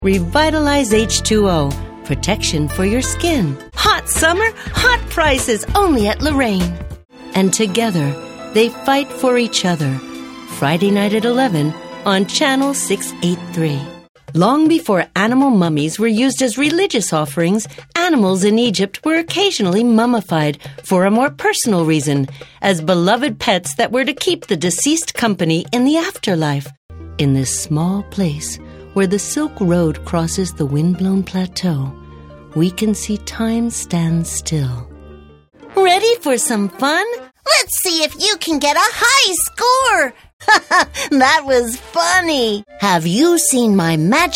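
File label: American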